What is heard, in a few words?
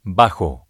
"bajo", Spanish